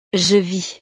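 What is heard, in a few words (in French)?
"je vis", French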